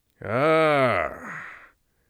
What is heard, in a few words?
satisfied, Mage Voice; Mage Voice Pack